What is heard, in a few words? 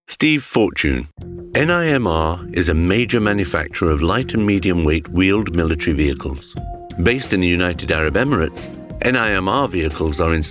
corporate